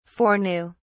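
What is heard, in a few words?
"foreknew", British English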